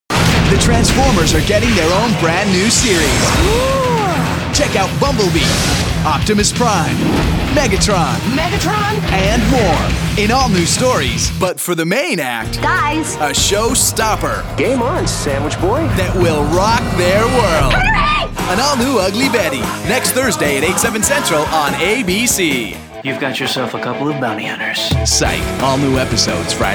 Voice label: promo